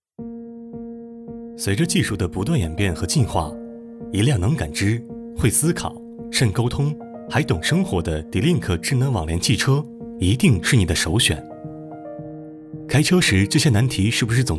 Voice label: 科技感; 智能网联车

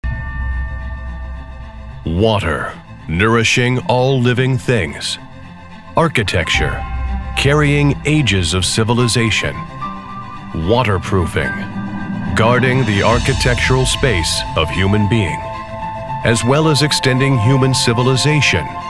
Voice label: 外籍美式英语品质广告

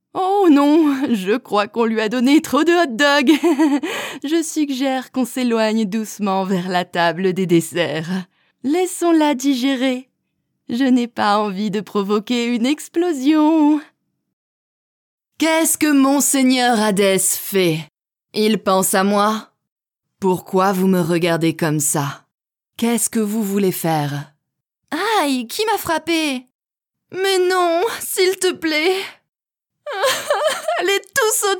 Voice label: 台词情绪饱满

